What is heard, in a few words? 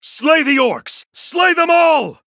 male human; Arthas